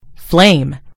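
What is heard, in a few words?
"flame", American English; gcompris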